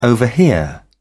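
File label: "overhear", British English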